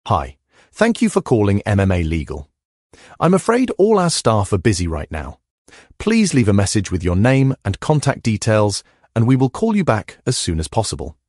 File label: greeting, voicemail; solicitors